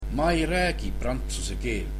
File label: I dont speak French, estonian